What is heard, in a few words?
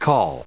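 "col", US English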